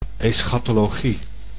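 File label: "eschatologie", Dutch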